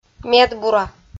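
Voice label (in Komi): "медбура", Komi-Zyrian, female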